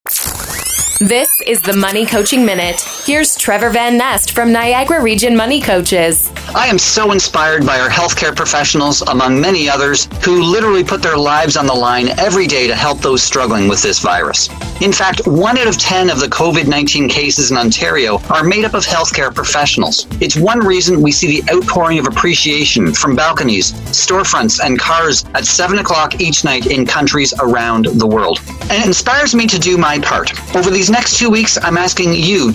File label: Radio Spot; Health Care Professional Contest